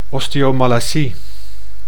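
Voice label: "oseomalacie", Dutch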